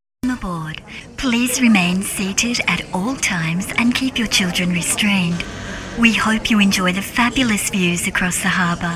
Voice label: Safety Briefing